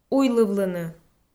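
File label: "уйлывлыны", Komi, female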